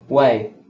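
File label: "wey" Proto-Indo-European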